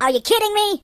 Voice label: "Grenade", Mobster; hedgewars

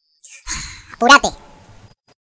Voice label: Boring, voices es; hedgewars; Default es

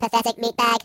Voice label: "Missed", robot voice; hedgewars

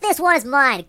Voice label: This one is mine, Mobster; hedgewars

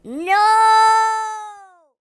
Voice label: "Fly away", Mobster

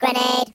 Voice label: "Grenade", robot voice; hedgewars

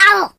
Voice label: "Ouch", Pirate

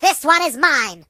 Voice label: This one is mine, British; hedgewars

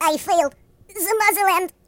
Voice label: "Oh dear", Russian

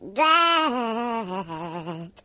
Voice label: "Drat", voices, Singer; HedgewarsMobile

